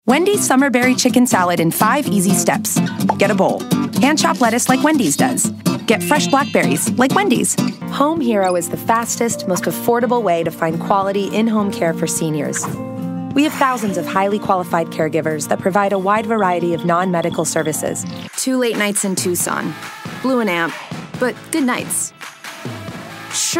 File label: Commercial